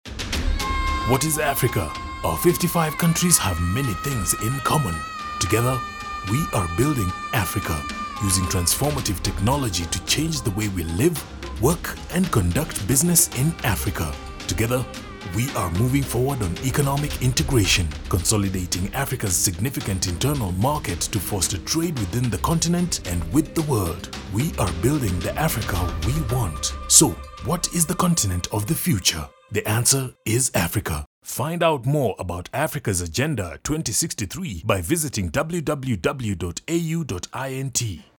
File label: radio commercial; the answer is africa; African Union